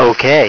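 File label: "okay", English; VOZES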